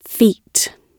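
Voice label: "feet", British English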